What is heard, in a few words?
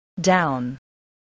"down", spelling